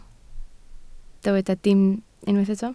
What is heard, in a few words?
"dim", Welsh